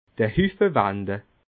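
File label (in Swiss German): "huffewande", Alsatian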